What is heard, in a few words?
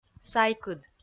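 "сайкыд", Komi, female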